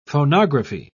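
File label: "phonography", British English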